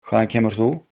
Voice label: where from, icelandic

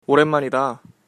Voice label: long time no see, Korean

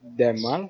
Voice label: "go away", wolof

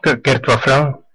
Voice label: excuse me, west flemish

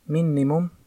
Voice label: my name is livonian

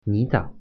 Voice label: "good morning", Mandarin

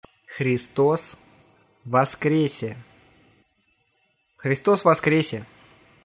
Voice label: happy easter, russian

